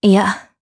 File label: Vox Deny, jp; Seria; kingsraid audio